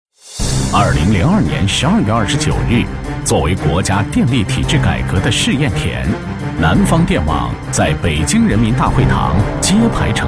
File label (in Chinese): 男 企业; 南网改革历程